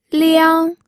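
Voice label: "liang", first tone, pinyin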